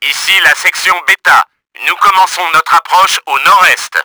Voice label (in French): NEW LANDER, french; Battle Engine Aquila; XBOX